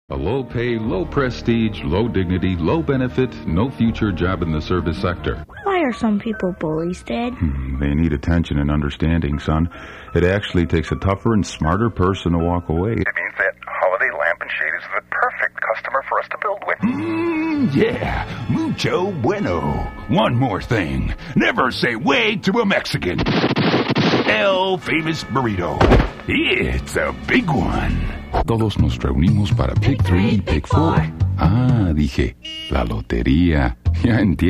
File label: English Espanol